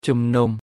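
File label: "ជំនុំ" Khmer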